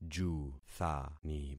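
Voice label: "juthani", concatenated syllables; Affixing experiment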